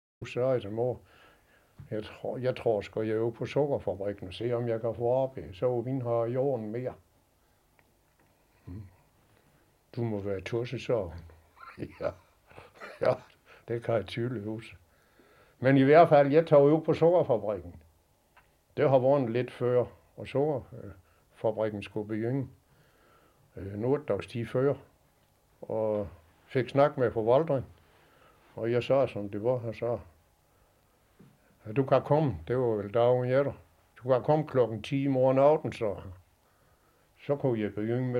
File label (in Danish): Dialekt fra Falster, Torkildstrup